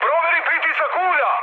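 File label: Serbian damaged oil mood high; War Thunder; speech srb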